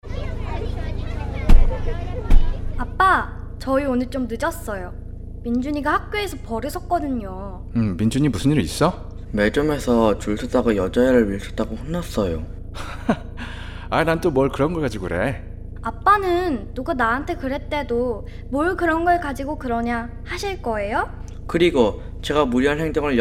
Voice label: Radio Korean; CALD

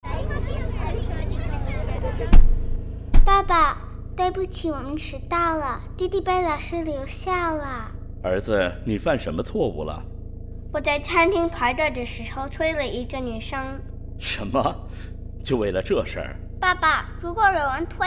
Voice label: Radio Mandarin; CALD

